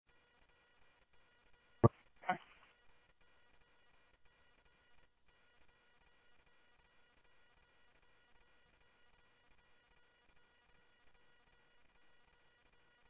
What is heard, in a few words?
Mesa Simulcast, MESA PD, scanner audio